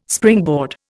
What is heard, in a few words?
"springboard", Indian